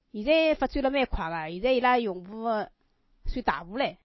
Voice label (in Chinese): 上海话; 现在发展了蛮快个现在伊拉用户算大户唻。